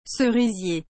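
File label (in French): "cerisier", French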